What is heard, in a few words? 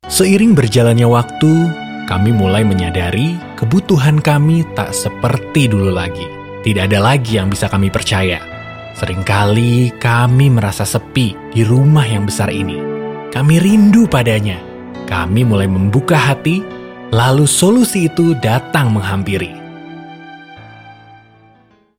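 Indonesian character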